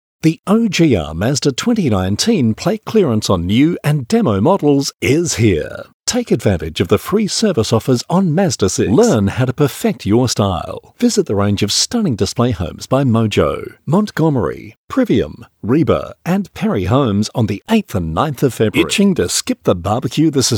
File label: Soft Sell